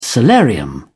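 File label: "solarium", English, British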